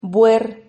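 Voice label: "buer", Spanish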